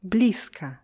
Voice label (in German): "blizko", russisch; НЕ-Wörter mit Synonymen